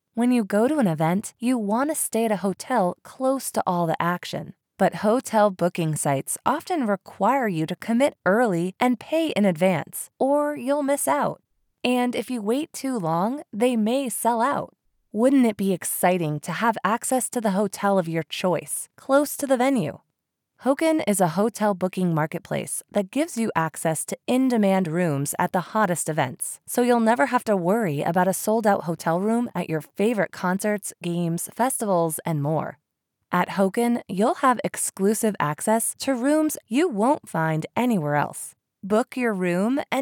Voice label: Online Video Narration Example - Conversational, low key tone